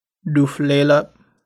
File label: tulalip, Lushootseed